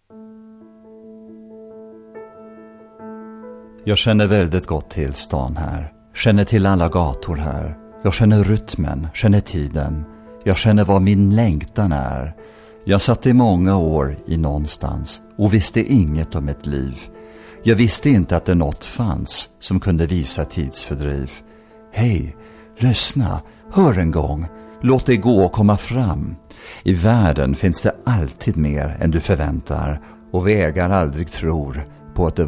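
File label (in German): Swedish, Schauspiel, Sanft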